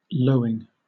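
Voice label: "lowing", British English; pronunciations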